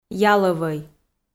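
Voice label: "ялӧвӧй", Komi, female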